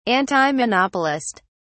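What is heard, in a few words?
"antimonopolist", British English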